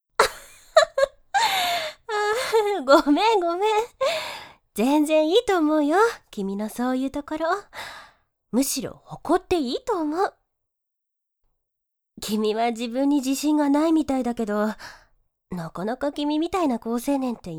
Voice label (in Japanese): otona